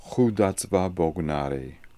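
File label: "Hoodazbabognari", pronunciations; Fourth Way; Beelzebub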